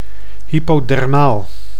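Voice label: "hypodermaal", Dutch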